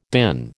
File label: "DIN", American